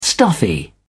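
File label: "stuffy", English, uk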